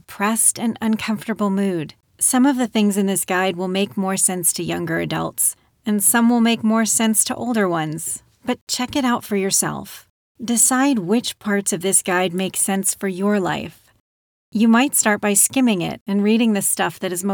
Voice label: E-learning, Sad Sack